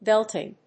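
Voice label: "belting", English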